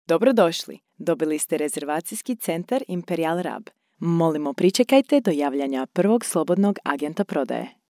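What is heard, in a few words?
Croatian Ivr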